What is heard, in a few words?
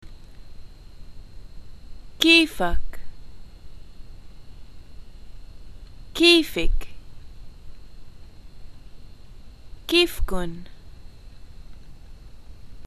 greetings how are you, arabic, lb